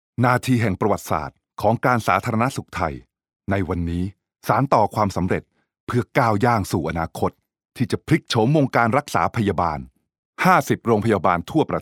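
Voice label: Thai Thailand male narrative; thai voice over talent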